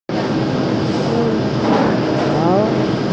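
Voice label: "si1kao3", Mandarin Chinese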